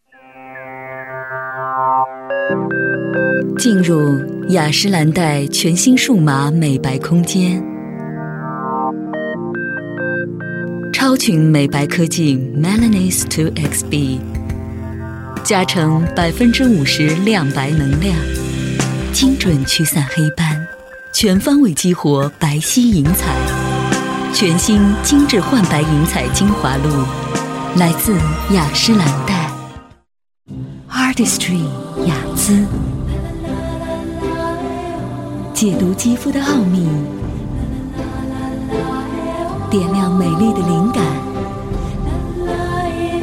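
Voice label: female, Mandarin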